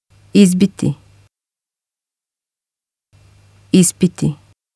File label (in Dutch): "izbiti", bosnisch